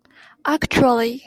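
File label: "actually", Japanese female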